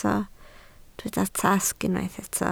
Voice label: "tasg", Welsh

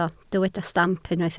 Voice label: "stamp", Welsh

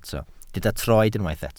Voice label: "troed", Welsh

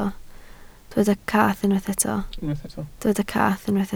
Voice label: "cath", Welsh

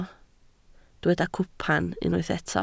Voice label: "cwpan", Welsh

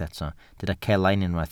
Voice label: "celain", Welsh

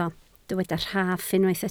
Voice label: "rhaff", Welsh

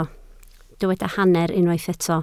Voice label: "hanner", Welsh

